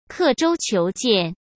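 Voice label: "刻舟求剑", Mandarin Chinese; chinese-flashcards; hsk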